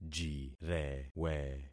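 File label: "jirewe", concatenated syllables; Affixing experiment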